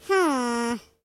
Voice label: "Hmm", British; hedgewars